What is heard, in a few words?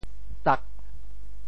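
"tak8", Teochew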